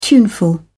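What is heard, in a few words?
"tuneful", British English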